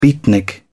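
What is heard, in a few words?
"beatnik", British English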